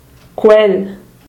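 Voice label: "quel", Romansh sursilvan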